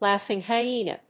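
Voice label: "laughing hyena", English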